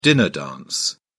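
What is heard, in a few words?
"dinner dance", British English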